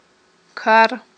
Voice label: "кар", Aghul